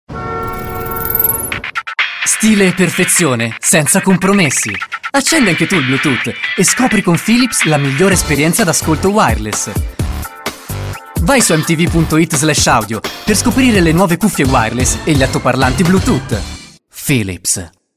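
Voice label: italian Fast Energetic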